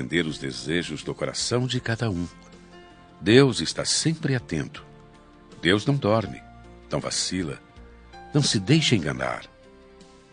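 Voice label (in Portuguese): religioso male anjos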